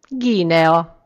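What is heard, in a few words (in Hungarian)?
"guinea", Hungarian; gcompris; geography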